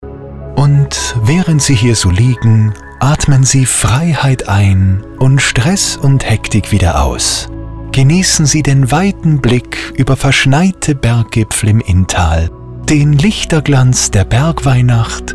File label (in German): Spot Winter; RF Spot IT